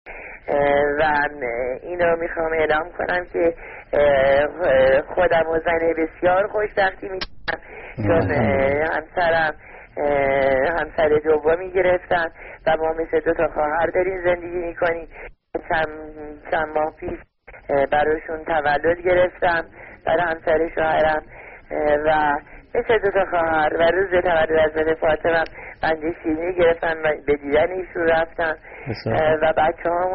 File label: Funny TV phone call in Iranian TV